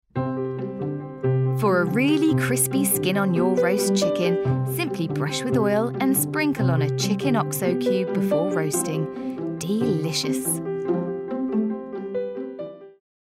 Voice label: Commercial